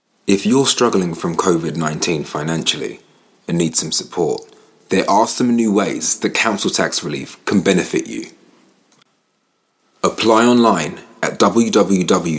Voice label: london voice corporate